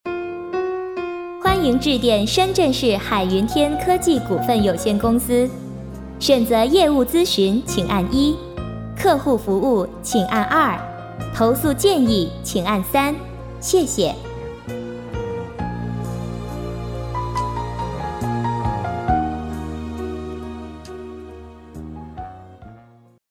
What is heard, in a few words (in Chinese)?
彩铃; 科技股份有限公司